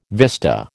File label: "vista", American